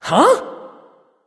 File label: confused; smite; rama